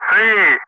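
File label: "3", loud, English; Ka-50; Wingman; DCS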